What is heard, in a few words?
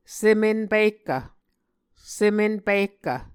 "sem-enpihkv", Muscogee